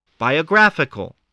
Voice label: "biographical", spelling